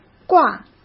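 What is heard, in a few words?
"挂", Mandarin Chinese; hsk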